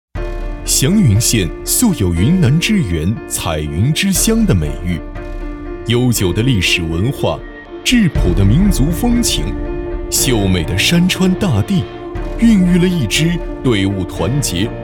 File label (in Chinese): 男 专题; 检徽映曜民族